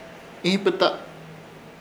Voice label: "Ihipɨta", Ishir Chamacoco; comezon

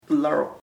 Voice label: old, chamacoco; viejo

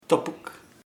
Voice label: dead, chamacoco; muerto

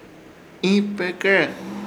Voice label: "Ihĩpɨkere", Ishir Chamacoco; cubiertos

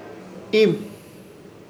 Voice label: "Iim", Ishir Chamacoco; abandonar

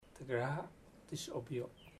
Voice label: I know how to work, chamacoco; Bahia Negra

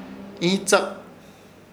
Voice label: "Ihitsãk", Ishir Chamacoco; augero

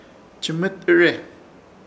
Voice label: "Chɨmɨtɨre", Ishir Chamacoco; preparar

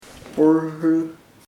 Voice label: plant, chamacoco; planta

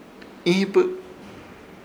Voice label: "Ihipɨ", Ishir Chamacoco; estar tarde

